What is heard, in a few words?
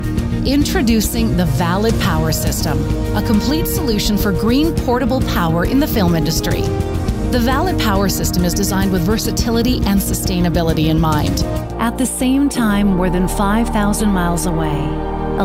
Narration